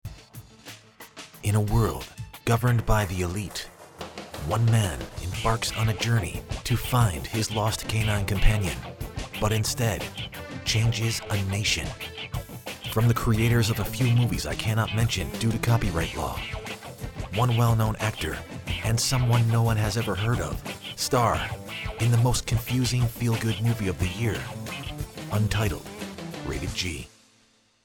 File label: Movie Trailer